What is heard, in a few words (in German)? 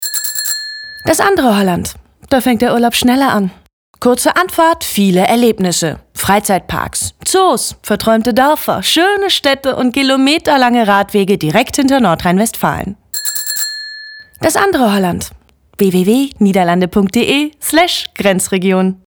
Radiospot; Niederlande